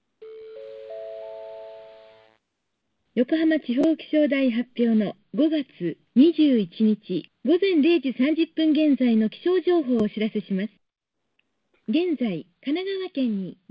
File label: g722 16khz wifi